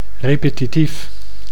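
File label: "repetitief", Dutch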